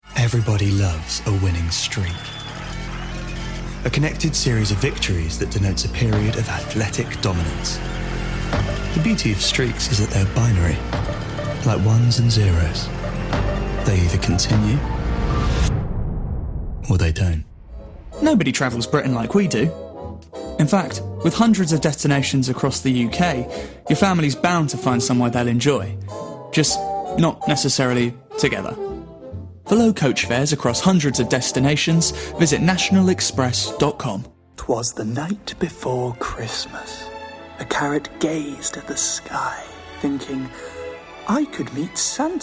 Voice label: Comercial Reel Voice over

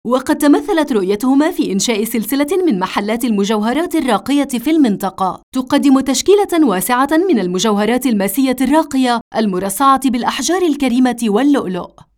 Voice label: commercial dubai

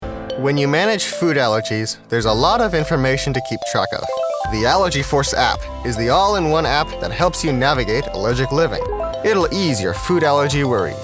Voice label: Commercial App

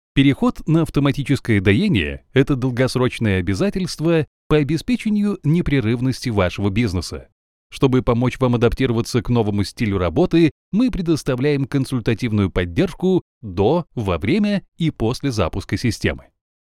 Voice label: Voice Sample Elearning

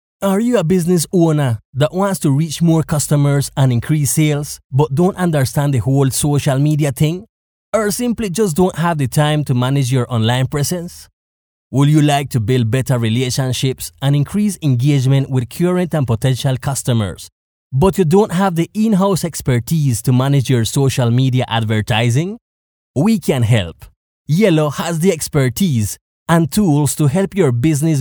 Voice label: PAN CARIBBEAN NARRATION

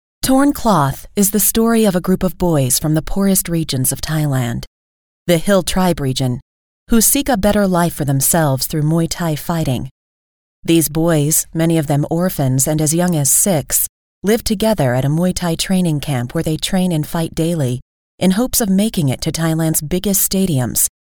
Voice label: Documentary

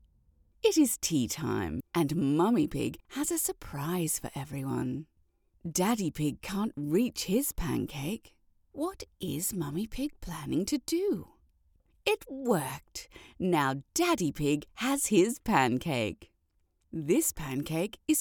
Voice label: Narrator; Peppa

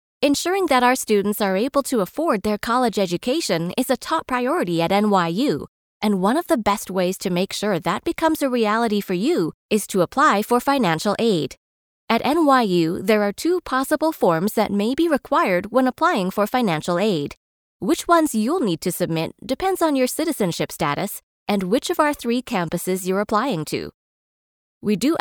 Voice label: Explainer Sample

